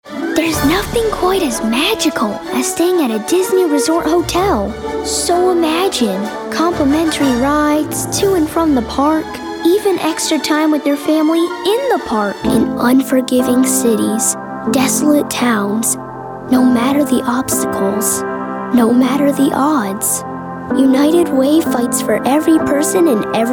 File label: kids commercial; Pre-teen. Natural, articulate, and genuine.